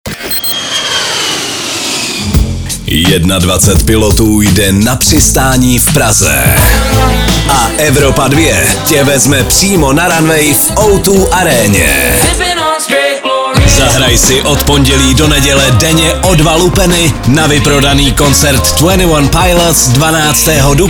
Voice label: liner